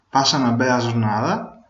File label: have a nice day, Venetian